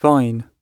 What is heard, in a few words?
"vine", English